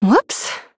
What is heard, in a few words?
Female Human Oops; Jaina